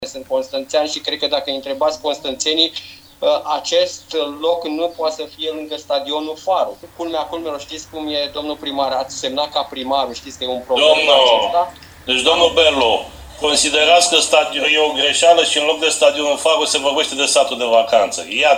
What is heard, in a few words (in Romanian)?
DIALOG